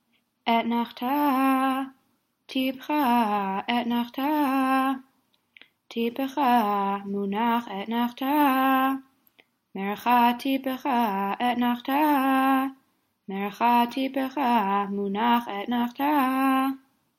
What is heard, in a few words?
polish lithuanian haftorah אתנחתא